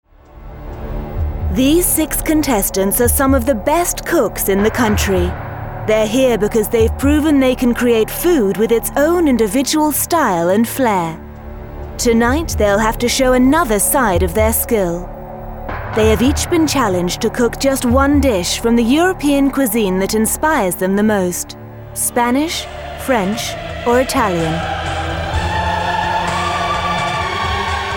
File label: Trailer; Masterchef